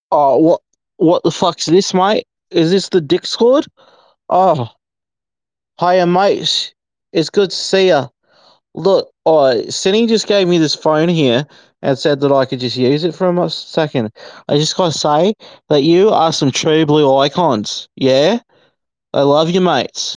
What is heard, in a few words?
voice message